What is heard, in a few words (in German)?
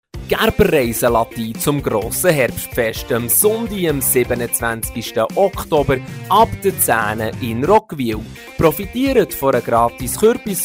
Spot; Radio32; Herbstfest 2024; Gerber Reisen